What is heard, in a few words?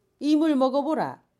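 water, Jeju-eo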